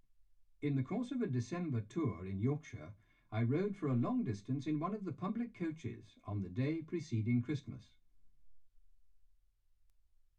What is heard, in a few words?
male speech english